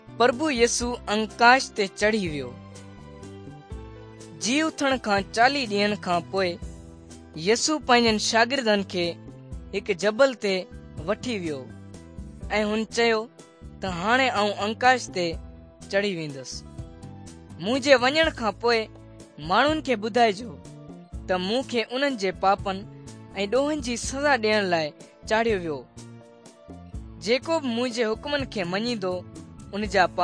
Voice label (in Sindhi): good news sindhi dukslinu, drama; bible; pakistan; sindhi pakistan nt drama